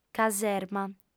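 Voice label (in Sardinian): "caserma", female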